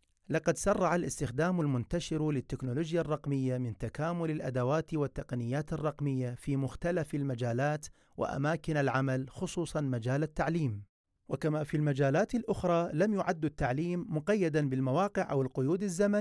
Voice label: male arabic voice over narrative

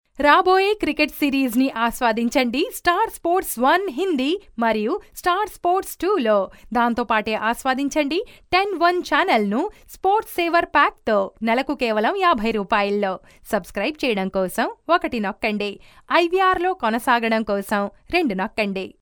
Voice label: female telugu voice over sales

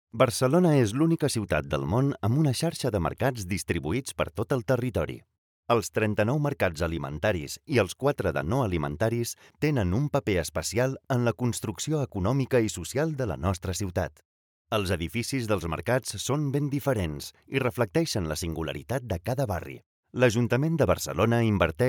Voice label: male catalan voice over talent narrative

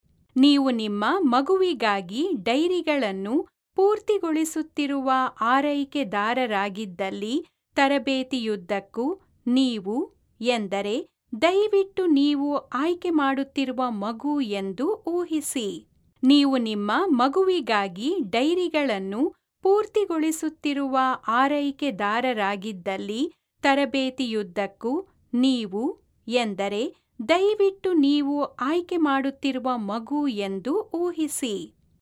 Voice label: female kannada voice over narrative

